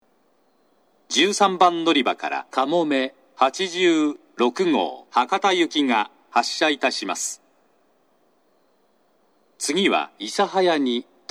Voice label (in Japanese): 長崎 西九州新幹線ホーム 自動放送, 13番のりば発車放送; かもめ86号 博多行き